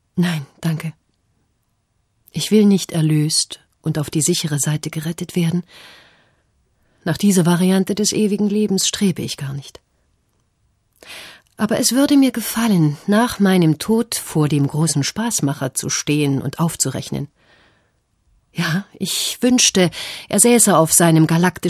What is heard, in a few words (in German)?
lesung oder hoerbuch